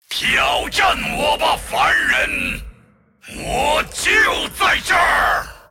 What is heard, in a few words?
move, zh